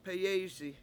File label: "peyEEysi", washo; he$s shivering